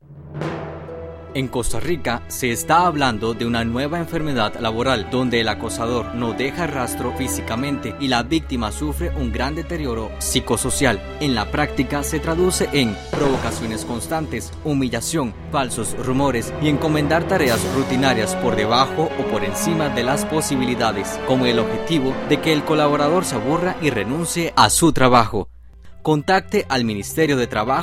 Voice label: spot publicitario

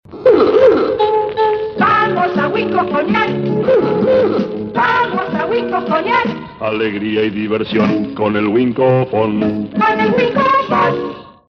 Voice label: Publicidad radial del tocadiscos; Winco

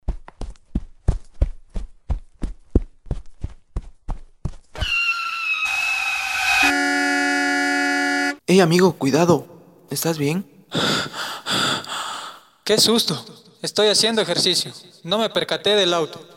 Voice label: spot publicitario; complejo deportivo unl

